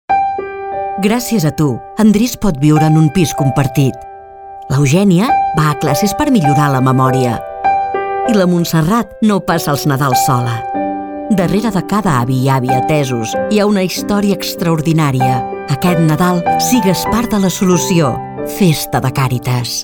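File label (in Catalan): falca ràdio; caritas; nadal sigues part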